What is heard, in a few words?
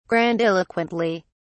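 "grandiloquently", English, British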